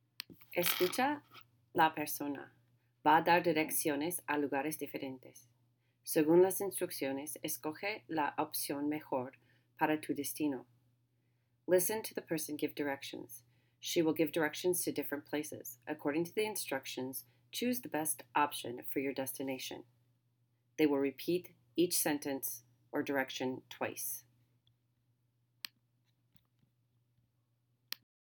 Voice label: narration vocal